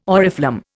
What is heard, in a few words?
"oriflamme", Indian